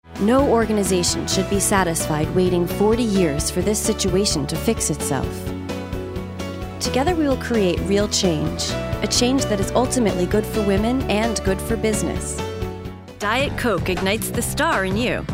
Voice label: Narr